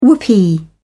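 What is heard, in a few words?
"whoopee", British English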